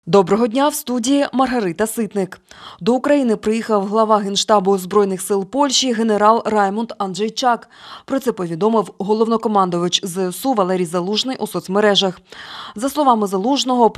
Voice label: Ukrainian Morning News